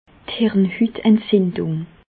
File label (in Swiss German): "hirnhutentzindung", Bas Rhin; medecine; méningite